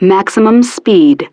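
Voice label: "Maximum Speed", English; Betty; DCS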